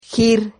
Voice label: "gir", Silabas

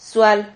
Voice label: "sual", silabas

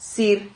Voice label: "sir", Silabas

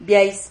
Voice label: "iais", Silabas

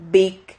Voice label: "vic", Silabas

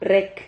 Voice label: "rrec", Silabas

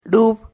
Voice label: "rub", Silabas

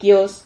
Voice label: "kios", Silabas

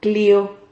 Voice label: "clio", Silabas

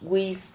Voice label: "gûis", Silabas

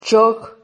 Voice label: "chog", Silabas

